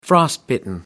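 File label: "frostbitten", British English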